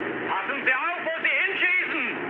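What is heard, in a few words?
German player friendly fire mood low, speech; War Thunder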